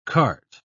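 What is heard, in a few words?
"kart", British English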